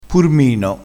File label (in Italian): "purmino", crespinese